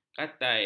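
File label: "katai", Malay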